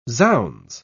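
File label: "zounds", British English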